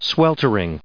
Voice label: "sweltering", adjective

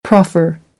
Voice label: "proffer", American English; ɚ - əɹ

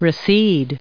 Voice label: "recede", English

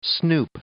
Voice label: "snoop", English

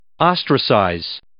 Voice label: "ostracize", English

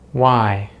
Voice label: "why", English

